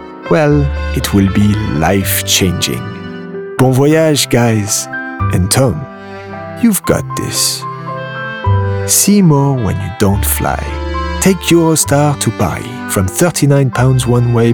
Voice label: male english english with french accent commercial; eurostar